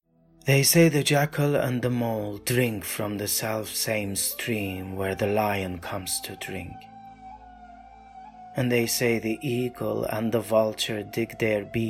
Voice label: english others